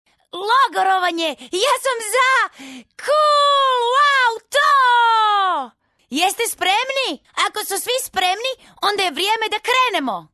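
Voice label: croatian female character, voice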